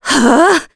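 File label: Vox Casting, Japanese; Maria; kingsraid; voices heroes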